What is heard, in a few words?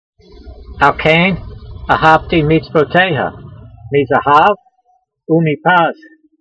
voice, hebrew language; Psalm 119:127; al-kayn a'havtee meetsvoteyha, meeza'hav oo'meepaz